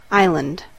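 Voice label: "island", English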